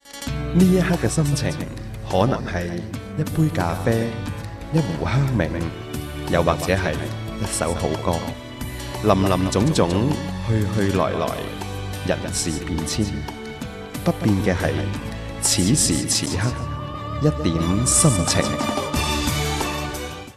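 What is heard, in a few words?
Chinese Cantonese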